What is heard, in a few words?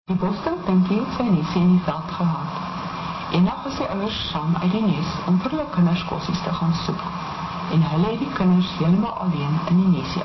afrikaans